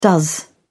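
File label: "does", British English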